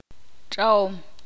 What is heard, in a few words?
ixil; comer